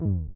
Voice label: speak, Voice Talk

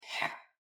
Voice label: arachnid, Voice Talk

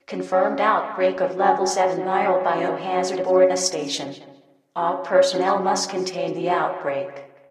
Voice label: outbreak, Announcements

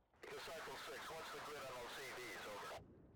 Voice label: Radio chatter

